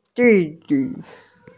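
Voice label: "didi", Mandarin Chinese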